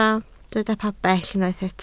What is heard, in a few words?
"pabell", Welsh